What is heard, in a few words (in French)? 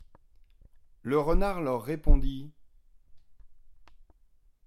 narration